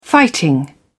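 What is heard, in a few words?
"fighting", English, British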